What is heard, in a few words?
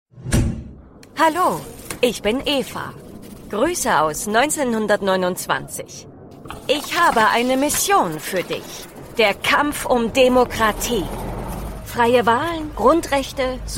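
german e-learning, female; Quente, Autêntica, Versátil; Atriz de Voz Alemã Profissional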